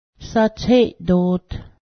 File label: navel, Cayuga